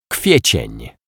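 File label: "Kwiecien", Polish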